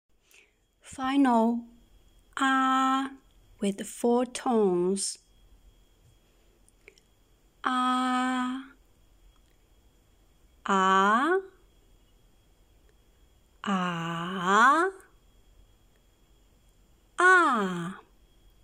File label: a with 4 tones, Mandarin